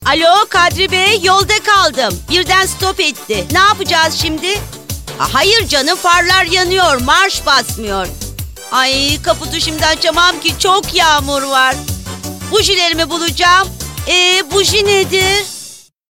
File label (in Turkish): neseli esprili, duygusal motive